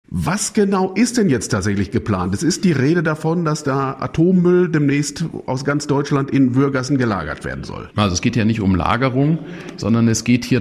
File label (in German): Interview